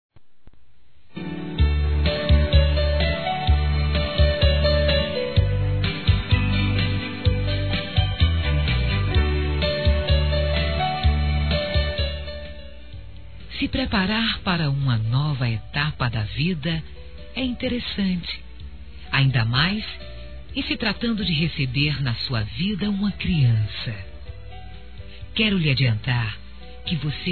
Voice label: Parabéns Bebê (Mãe) f; Telemensagens; Parabenização; fem

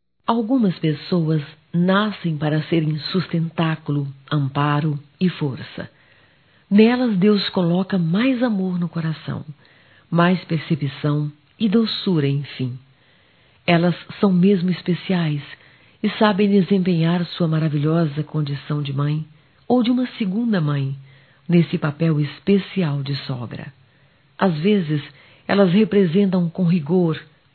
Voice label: Dia da Sogra f, Telemensagens

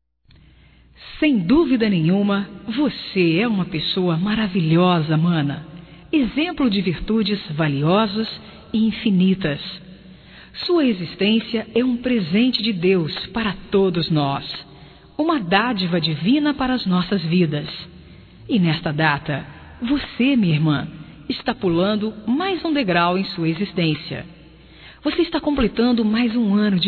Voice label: IRMA, Telemensagens, Aniversarios, fem; Telemensagens 2017

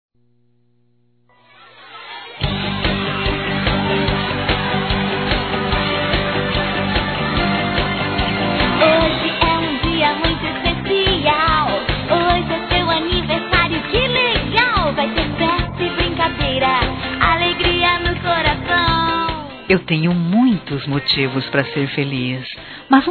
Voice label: Aniversário Sobrinha Feminino; Aniversário Infantil; Telemensagens

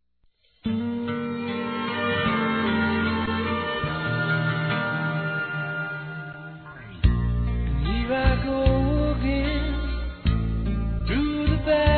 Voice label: ESTOU MAGOADA, fem; Reconciliação

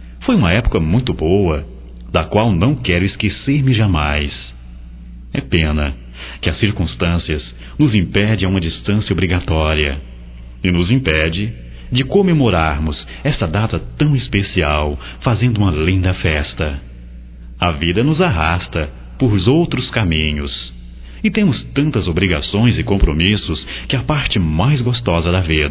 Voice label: Natal geral, masc

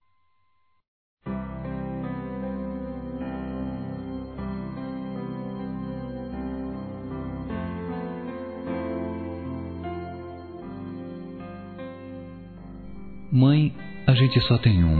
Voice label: SOGRA M; Dia das Mães; Masc; Telemensagens 2017

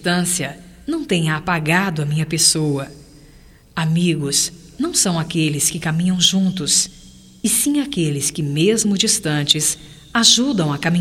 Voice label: AMIZADE GERAL, fem, Voz; Telemensagens 2017

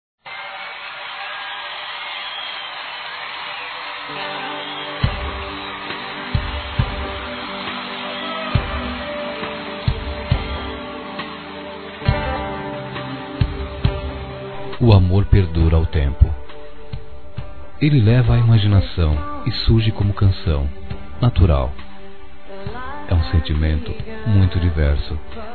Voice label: GERAL M; Telemensagens; Dia Dos namorados; masc; Telemensagens 2017

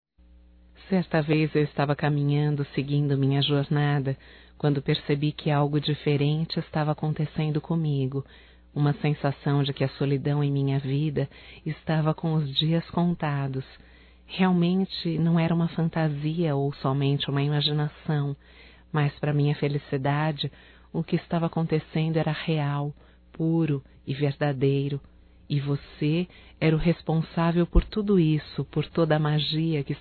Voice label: ROMâNTICA (F); Românticas

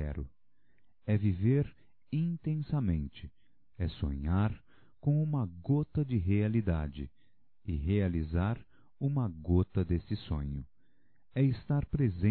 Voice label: Amar é Masculino

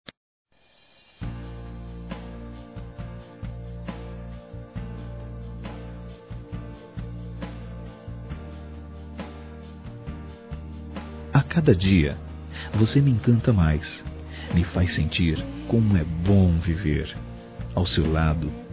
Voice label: ANIV. NAMORO M; Aniversários; ANIVERSARIO romantico; masc

